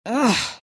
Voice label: anger; queenofpain